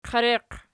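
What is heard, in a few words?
"qiereqi" nominative, Archi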